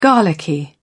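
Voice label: "garlicky", British English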